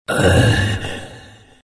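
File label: pain, chinese; dota2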